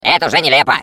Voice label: Defective Turret finale turret return defect fail, ru